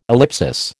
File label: "ellipsis", American